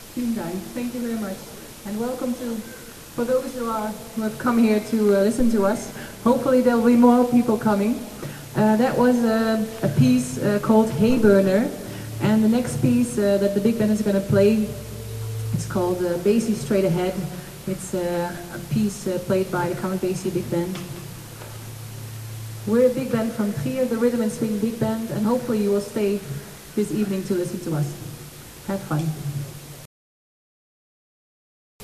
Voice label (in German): Ansage